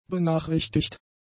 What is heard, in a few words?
"benachrichtigt", German; adjectives